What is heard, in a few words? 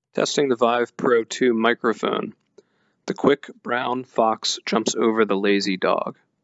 Vive Pro 2 mic